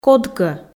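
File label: "кодкӧ", Komi, female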